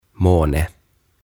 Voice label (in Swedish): "Måne" Kuu